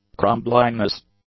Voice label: "crumbliness", English; nouns